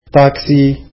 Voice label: "taxi", arabic

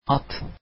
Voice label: horse, turkish

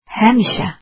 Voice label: "always", azeri

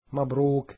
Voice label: Congratulations, arabic

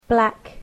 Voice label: "black", english

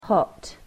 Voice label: "hot", english

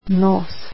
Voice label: nose, croatian

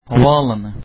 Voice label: "airport", Turkish

